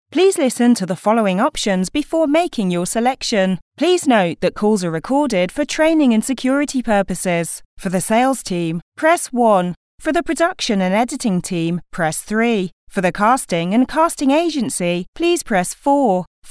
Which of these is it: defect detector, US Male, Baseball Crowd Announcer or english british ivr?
english british ivr